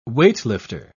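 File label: "weightlifter", British English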